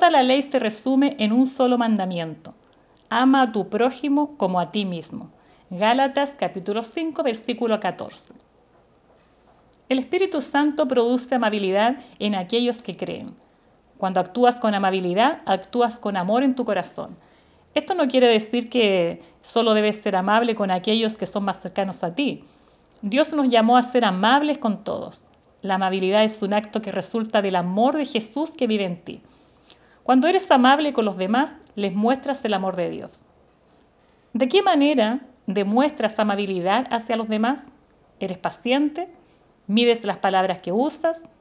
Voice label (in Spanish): devocional